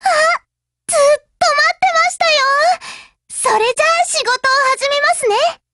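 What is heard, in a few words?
hello, Japanese; GF Alarm; HK45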